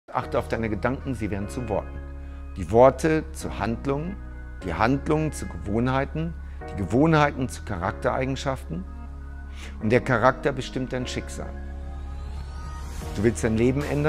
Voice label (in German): Motivation Deutsch German